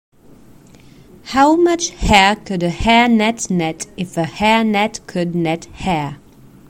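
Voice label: Tongue twister fast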